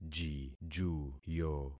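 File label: "jijuyo", concatenated syllables; Affixing experiment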